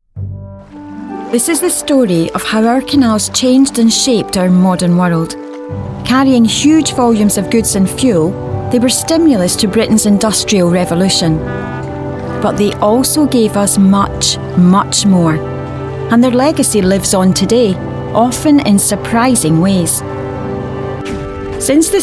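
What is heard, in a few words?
Scottish, female, narration; accent of scotland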